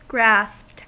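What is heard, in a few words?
"grasped", US English; pronunciations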